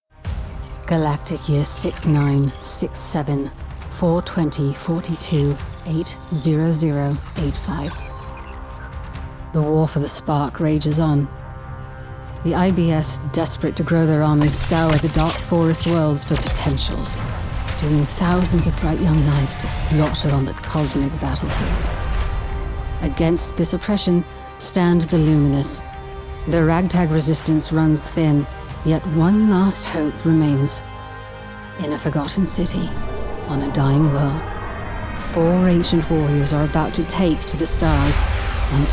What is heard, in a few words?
trailer